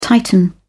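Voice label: "tighten", British English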